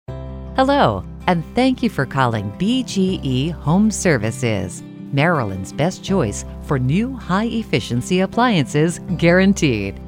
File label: Phone